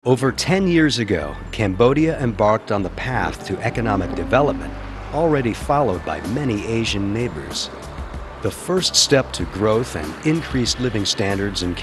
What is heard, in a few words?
Narration Educational